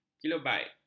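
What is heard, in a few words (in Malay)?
"kilobait", Malay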